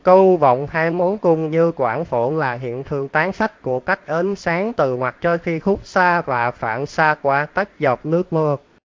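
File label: speaker, American English; piper-voices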